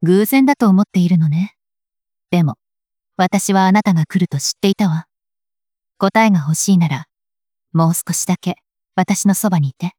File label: anime voice